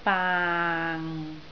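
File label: "baang6", Cantonese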